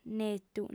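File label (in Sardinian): "netu", female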